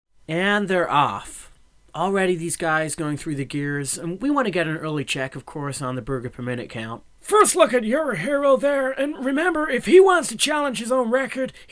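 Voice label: voice over (US); Burger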